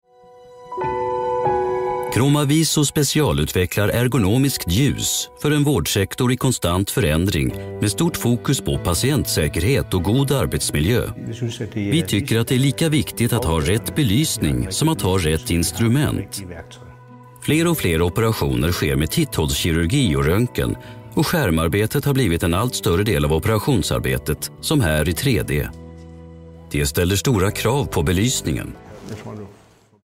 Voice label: Swedish Infomercial technical

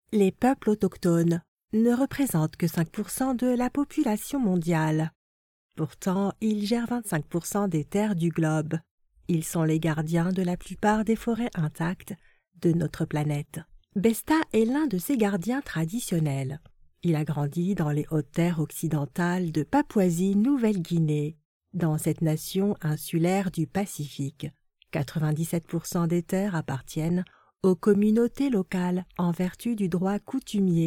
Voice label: reportage

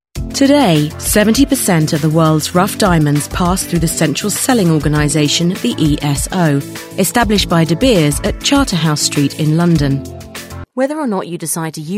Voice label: Corporate Narrative

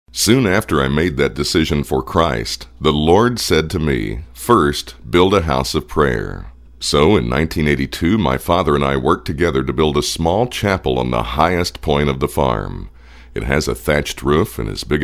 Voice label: AudioBooks

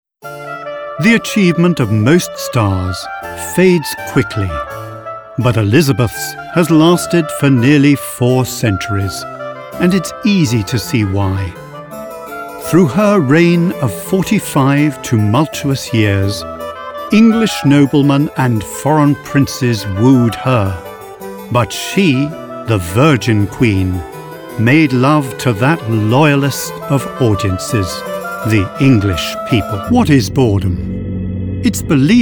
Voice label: Narrative

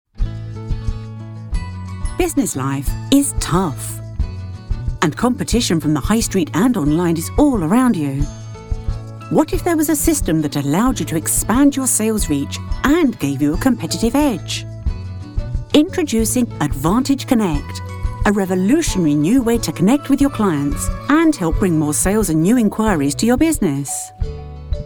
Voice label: Explainer Video

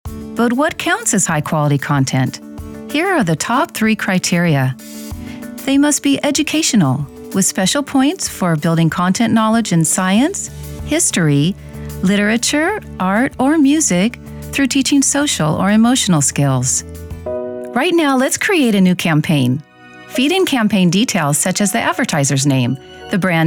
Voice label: E-Learning

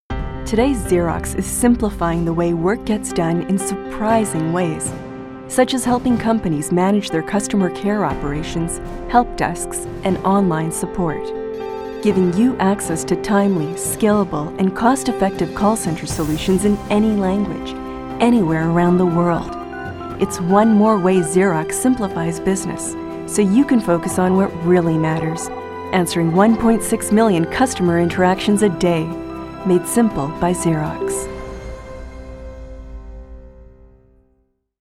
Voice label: Web Promo; Xerox

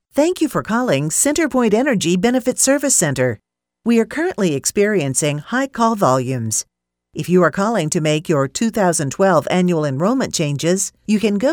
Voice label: IVR On Hold

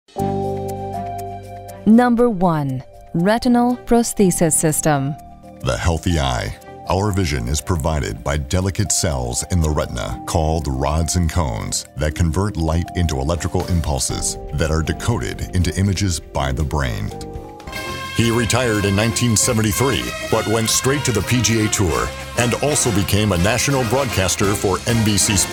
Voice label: Narration Industrial

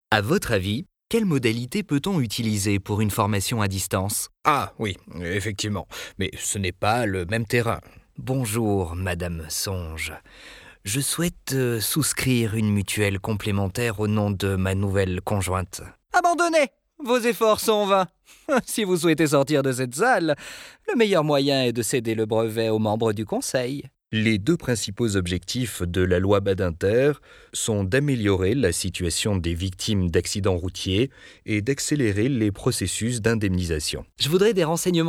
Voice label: French voice over E-learning Characters

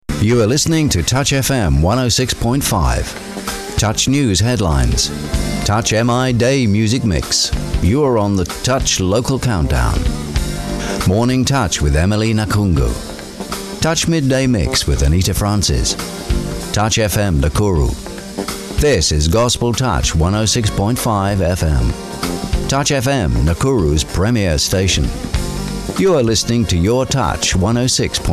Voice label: mono; Touch FM

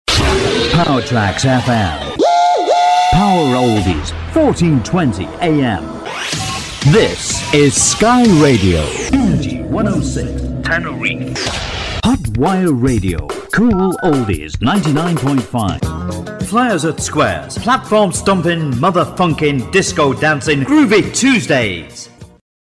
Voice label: IMAGING

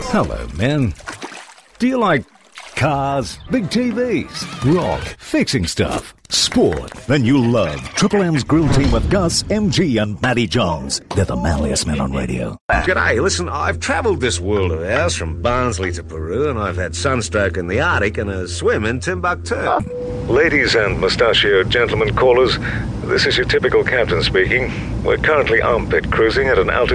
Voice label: Commercials Australian

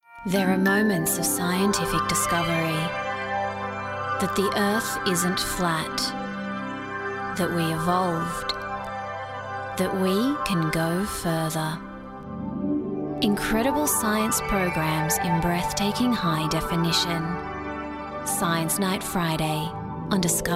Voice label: TV Promo; Science Night Fridays

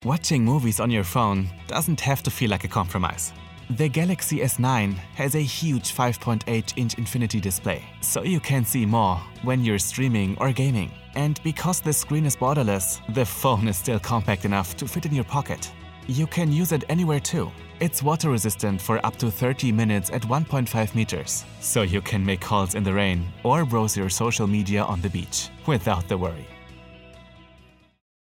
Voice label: phone commercial

